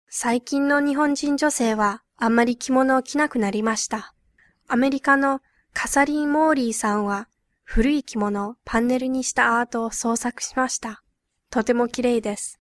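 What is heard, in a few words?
Japanese, female, various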